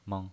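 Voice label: "meng", pinyin voice, Mandarin Chinese, neutral tone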